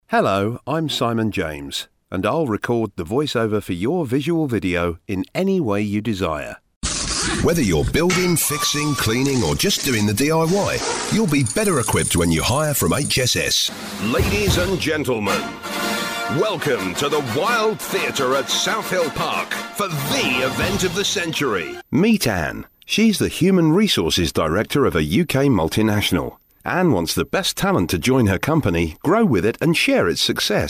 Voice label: EN BE voicesample